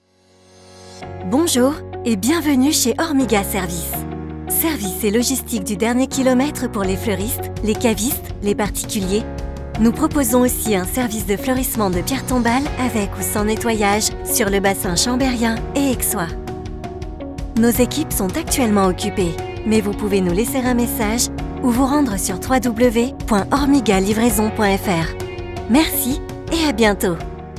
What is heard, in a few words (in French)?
repondeur; Ormiga services